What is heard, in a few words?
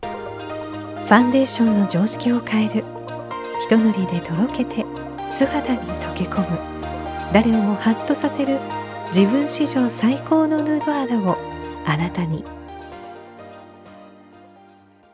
Japanese Native